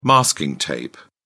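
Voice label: "masking tape", British English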